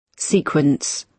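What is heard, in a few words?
"sequence", British English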